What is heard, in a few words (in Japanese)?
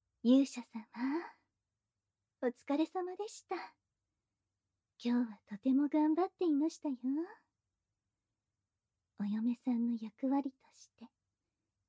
よしよしボイス; ミリアンナ